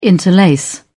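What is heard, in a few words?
"interlace", English, British English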